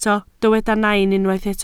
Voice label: "nain", Welsh